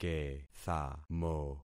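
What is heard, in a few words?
"kethamo", concatenated syllables; Affixing experiment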